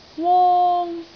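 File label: "wong1", Cantonese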